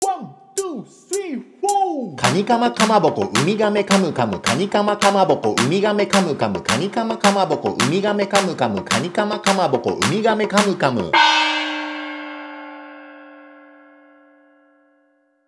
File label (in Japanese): 早口言葉リズム（中級編）